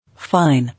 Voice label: "Fine", English